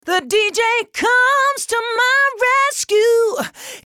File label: dry